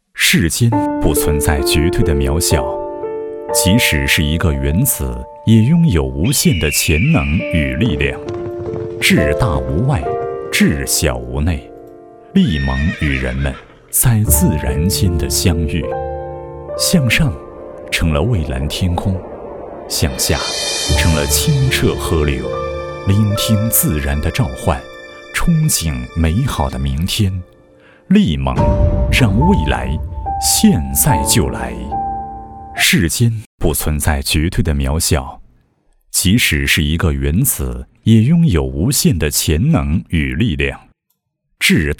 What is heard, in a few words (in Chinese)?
男 现代大气, 国语男声配音, 浑厚磁性; 丽檬